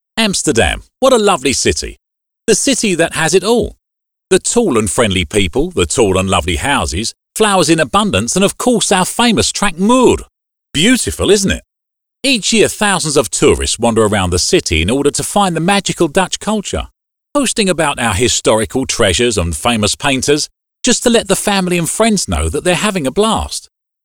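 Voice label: female, English British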